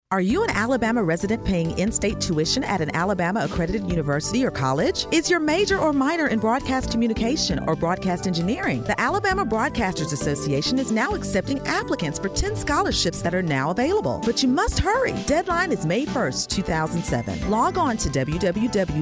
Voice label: Female